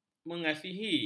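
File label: "mengasihi", Malay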